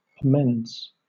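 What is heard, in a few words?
"amends", British English; pronunciations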